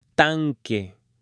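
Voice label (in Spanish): "tanque", Spanish, male; OBJETOS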